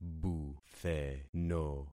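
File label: "butheno", concatenated syllables; Affixing experiment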